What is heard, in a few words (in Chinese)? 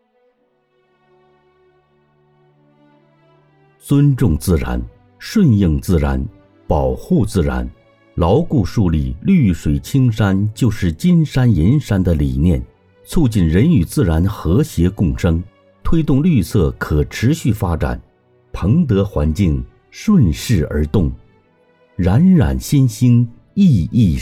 环境宣传片配音